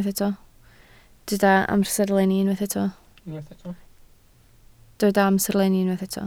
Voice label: "amserlenni", Welsh